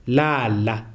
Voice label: "lie on side", Kalanga